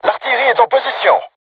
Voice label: voice message art charged, crew tanks french